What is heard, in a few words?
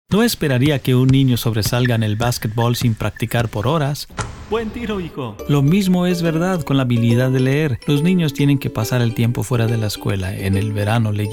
Reading Outside School Spanish